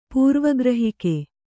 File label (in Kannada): "ಪೂರ್ವಗ್ರಹಿಕೆ", Kannada; pūrva grahike; noun